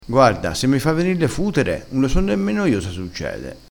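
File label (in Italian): "futere", vocabolario dialettale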